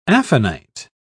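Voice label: "aphanite", British English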